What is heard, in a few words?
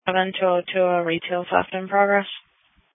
Police Dispatch